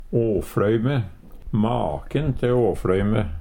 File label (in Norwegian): "åfLøyme", Numedalsmål; ei som overdriv; Maken te åfLøyme!; Adverb